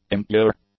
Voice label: "impure", English; adjectives